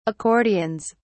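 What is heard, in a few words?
"accordions", British English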